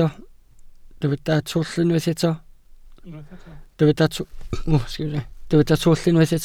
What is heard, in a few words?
"twll", Welsh